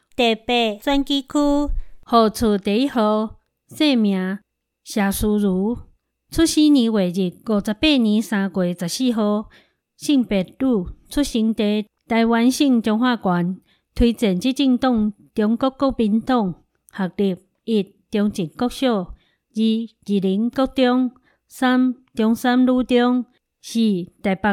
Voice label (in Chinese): 臺語; 彰化縣; 縣議員; 第八選舉區; 有聲公報